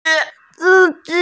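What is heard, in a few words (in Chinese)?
"si1ji1", Mandarin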